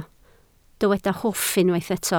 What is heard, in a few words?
"hwff", Welsh